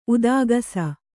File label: "ಉದಾಗಸ", Kannada; udāgasa; noun